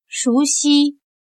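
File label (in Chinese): "熟悉", Chinese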